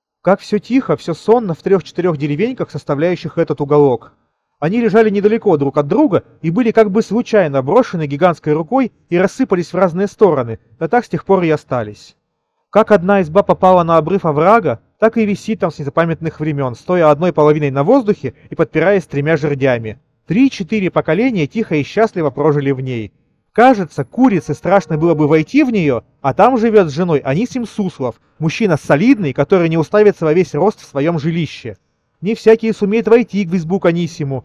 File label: Mic noise; Запись с микрофона гарнитуры